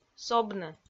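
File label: "собны", Komi, female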